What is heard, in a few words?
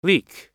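"leek", American English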